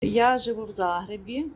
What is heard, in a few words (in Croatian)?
ukrajinski; POP UP Zagreb